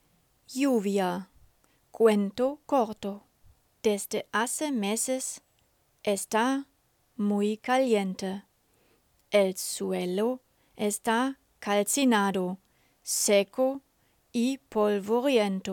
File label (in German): Hörprobe Regen Spanisch langsam